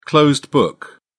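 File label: "closed book", British English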